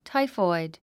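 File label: "typhoid", English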